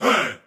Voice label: hey, group of men

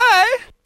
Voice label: "ay", voice

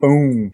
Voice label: "boom", Voice

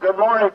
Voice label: "good morning", voice; expletives

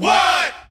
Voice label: what group of men

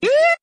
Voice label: "yee", voice; ooh ahh

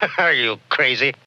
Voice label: are you crazy british bloke; questions

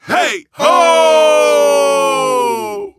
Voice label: hey ho, group of men

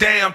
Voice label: "damn", expletives

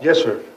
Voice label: yes sir, male

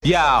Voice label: "yo", Voice

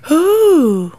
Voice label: "hoo"; Voice; ooh ahh

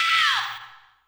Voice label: ow female scratch; ooh ahh; ow ouch

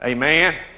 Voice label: "amen", voice; expletives

